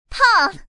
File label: "pong", woman